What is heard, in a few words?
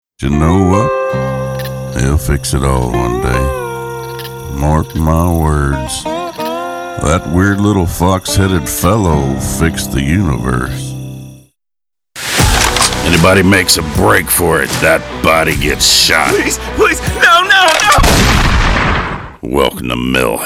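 Southern Accents, male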